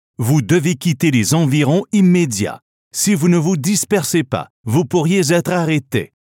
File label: Leave Vicinity (French Canadian); Pathfinder